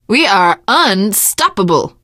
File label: hurrah, teen girl, survivor voice